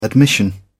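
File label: "admission", British English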